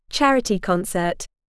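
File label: "charity concert", English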